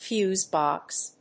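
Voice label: "fuse box", English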